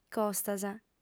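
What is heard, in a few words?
"costas", female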